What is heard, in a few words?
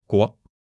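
"guo2", Chinese; HSK1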